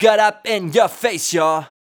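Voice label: vocal male, Dance Vocals, spoken words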